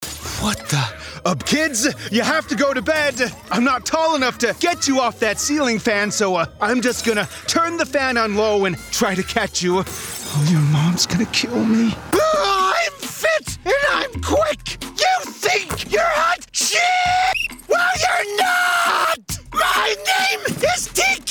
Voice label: Animation

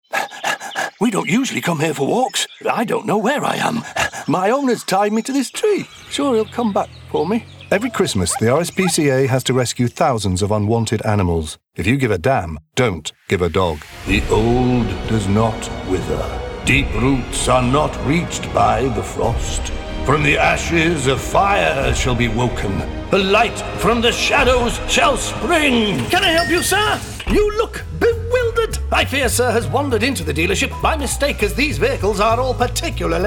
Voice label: commercials

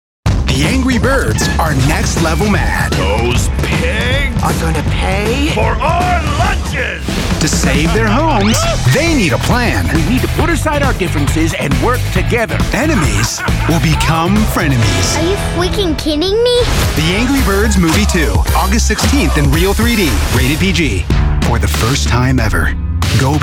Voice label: Trailer

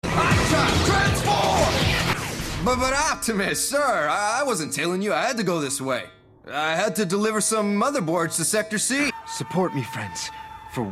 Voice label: Animation

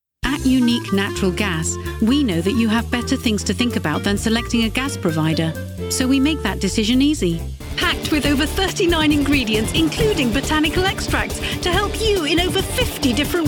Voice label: Commercial

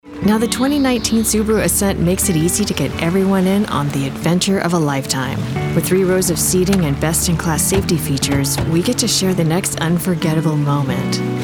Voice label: commercial

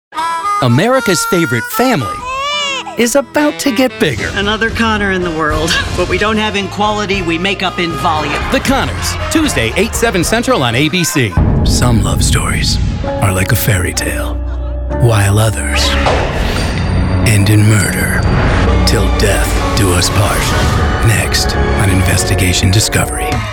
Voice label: Promo

